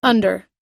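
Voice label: "under", American English; under /ʌ́ndɚ; 下, アンダー, に就いて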